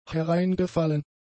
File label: "hereingefallen", German; adjectives